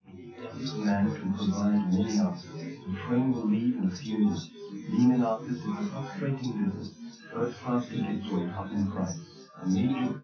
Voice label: male; SiSEC11; underdetermined

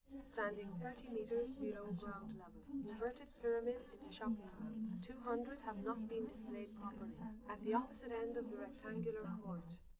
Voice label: female liverec; underdetermined; SiSEC11

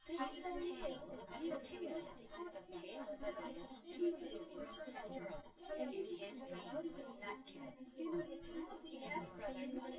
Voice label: female synth conv sim; SiSEC10; underdetermined

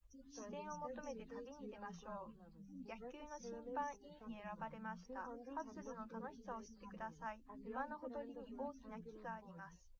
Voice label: female liverec 130ms 5cm sim; SiSEC08; SiSEC underdetermined

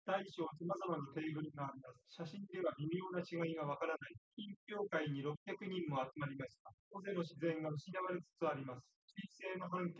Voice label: male3 synthconv; SiSEC08; SiSEC underdetermined

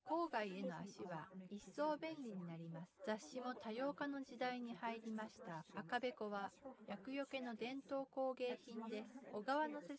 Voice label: female4 inst sim

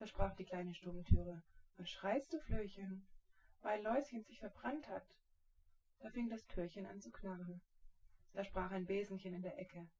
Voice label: female synthconv 130ms 5cm; SiSEC08; SiSEC underdetermined

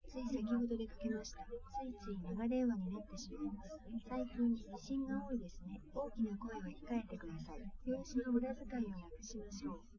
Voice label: female liverec; SiSEC11; underdetermined

